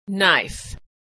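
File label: "knife", American English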